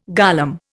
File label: "golem", Indian